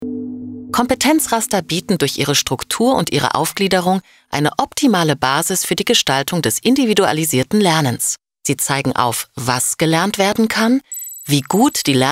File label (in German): Kompetenzraster E-Learning; LOFT VOICES